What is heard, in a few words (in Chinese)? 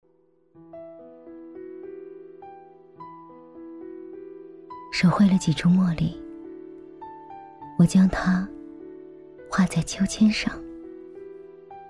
国语 女声 小诗 自然讲述 甜蜜羞涩甜美; 恋爱中的女孩